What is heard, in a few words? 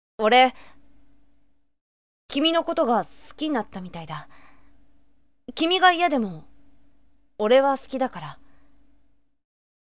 sample boy